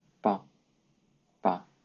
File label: "吧", Mandarin Chinese fourth tone; pronunciation